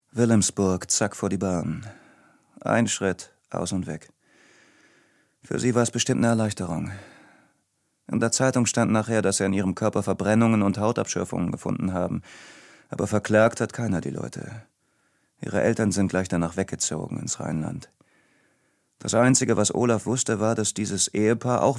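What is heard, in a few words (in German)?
Erzählung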